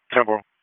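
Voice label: EMS DISP, radio; p25; wake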